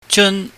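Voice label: "jeun", Cantonese, high falling tone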